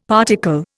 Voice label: "particle", Indian; Dictionary